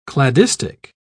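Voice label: "cladistic", British English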